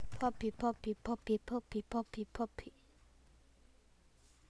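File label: "puppy", spoken word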